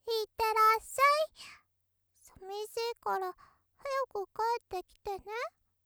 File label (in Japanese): ロリ いってらっしゃい